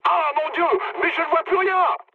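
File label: searchlite, mood medium, French; War Thunder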